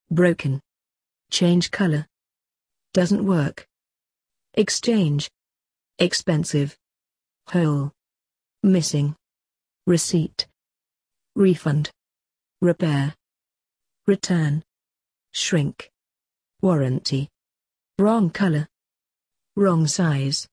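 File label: British; shopping problems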